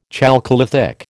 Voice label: "chalcolithic", American